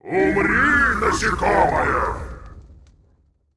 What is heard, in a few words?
Male Demon Attack